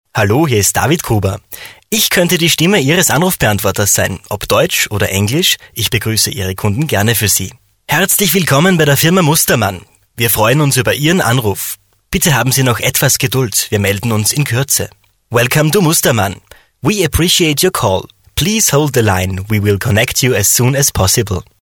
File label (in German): Sprecher Telefonansagen